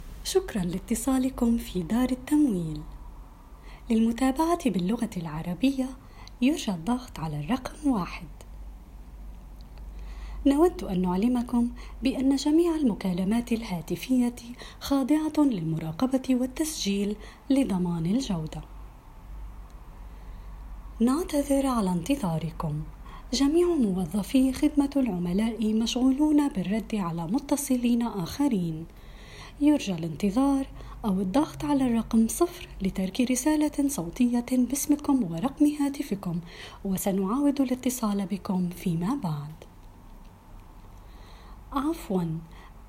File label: Arabic IVR On Hold Voicemail Greetings